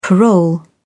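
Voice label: "parole", British English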